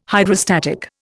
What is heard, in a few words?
"Hydrostatic", Indian